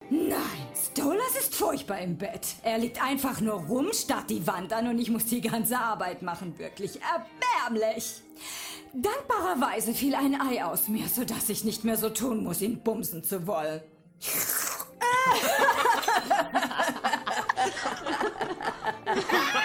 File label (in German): toxisches Eheweib